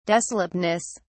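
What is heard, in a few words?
"desolateness", British English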